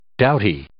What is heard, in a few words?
"doughty", English